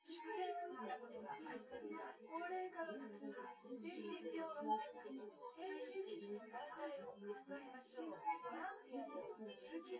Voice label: female synthconv